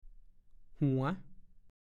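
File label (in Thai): "หันวงร่ะวิง", ภาษาโคราช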